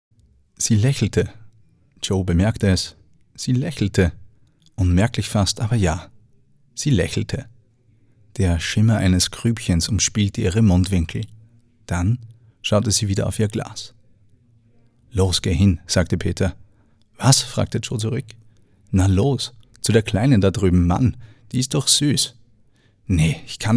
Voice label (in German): Hörbuch